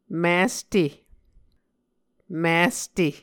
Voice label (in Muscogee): "miste", Muscogee